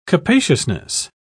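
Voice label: "capaciousness", British English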